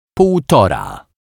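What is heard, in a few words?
"poltora", Polish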